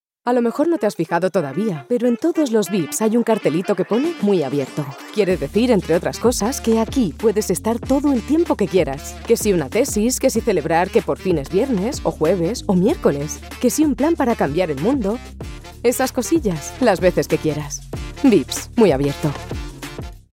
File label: spanish voice natural